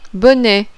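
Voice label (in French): "BONNET", French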